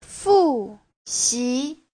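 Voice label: "复习", Chinese; (động từ) ôn tập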